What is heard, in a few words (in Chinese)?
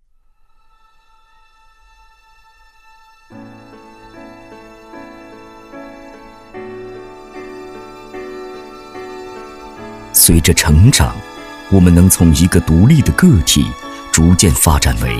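国语 男声 公益广告 大气浑厚磁性; 科技感; 积极向上; 善拍公益-舒缓深情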